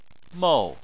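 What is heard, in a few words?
"mou", Chinese, fourth tone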